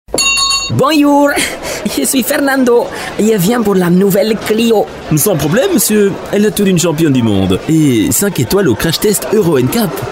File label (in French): spot joue